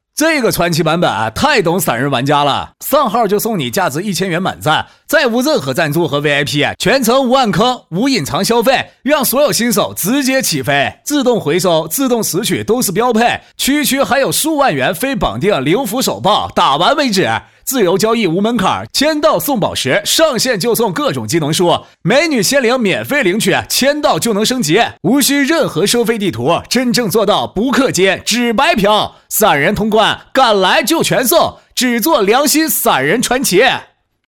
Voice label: 东北方言 男 信息流 东北; 方言配音; 传奇2

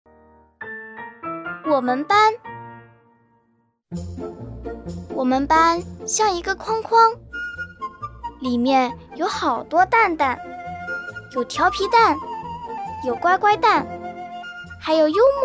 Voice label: 女 ; 【我们班】合成版

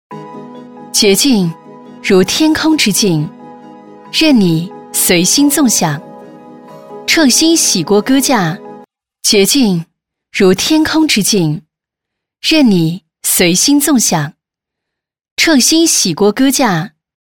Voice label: 女 家电 洗碗机; 老板洗碗机F80

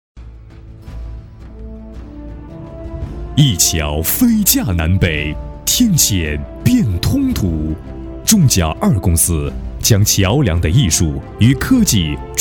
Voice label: 男国 企业宣传片; 中交二公司

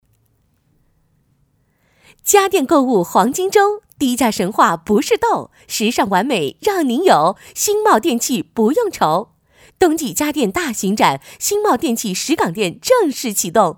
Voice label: 女 国 大音; 兴茂电器

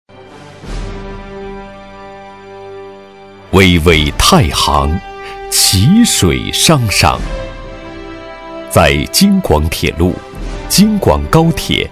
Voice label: 男国 企业宣传片; 鹤煤集团

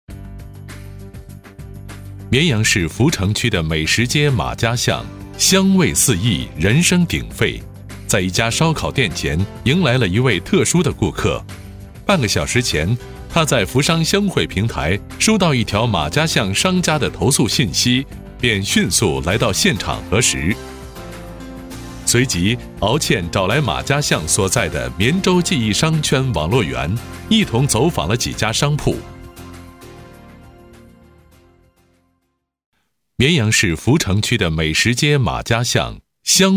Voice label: 男 新闻纪实; 清风护航不打烊

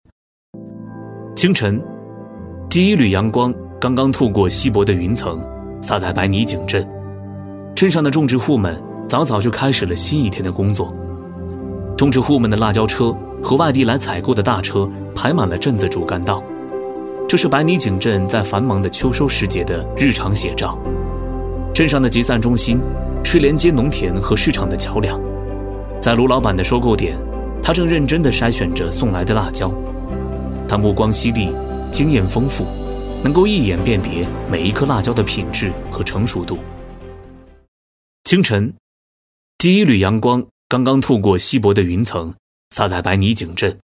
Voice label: 男 讲述 农产 辣椒土豆

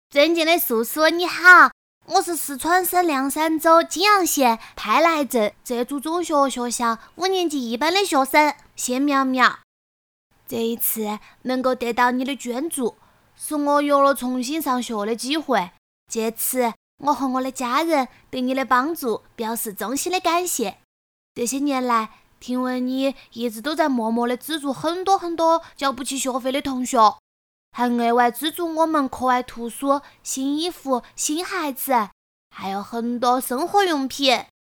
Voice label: 四川方言 女 仿童 四川方言; 方言配音; 四川话

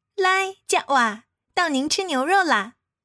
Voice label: 潮汕语 女 响亮少女声餐厅叫号; 方言配音

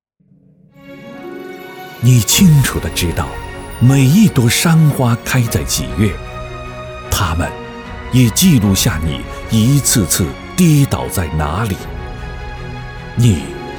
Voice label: 男 城市 旁白

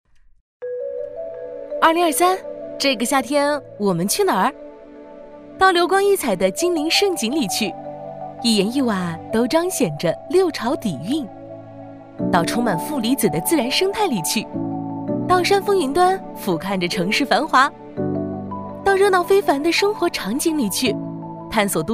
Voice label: 女 文旅; 《这个夏天，我们去哪儿》

